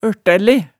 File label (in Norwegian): "ørtæle", hemsedalsmaalet, tale